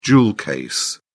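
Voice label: "jewel case", British English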